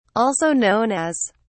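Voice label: "also known as", British English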